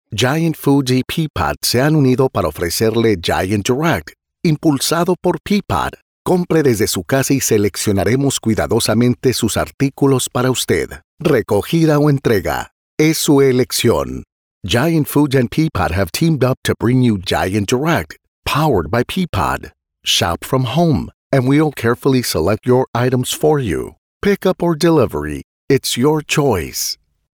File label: Bilingual